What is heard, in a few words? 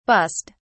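"bussed", British English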